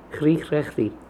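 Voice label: "Krikhrakhri", pronunciations; Fourth Way; Beelzebub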